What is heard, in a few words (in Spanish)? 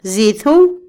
demonio, Otomí, mujer; Religión; INALI